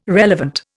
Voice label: "relevant", Indian

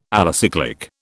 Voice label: "alicyclic", British

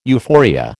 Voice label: "euphoria", American

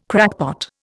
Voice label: "crackpot", Indian

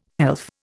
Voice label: "pelf", Indian; Dictionary

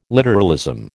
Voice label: "literalism", American

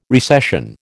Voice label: "recession", American

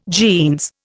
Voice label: "jeans", Indian

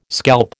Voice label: "scalp", American

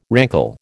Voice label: "rankle", American

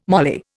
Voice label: "moly", Indian

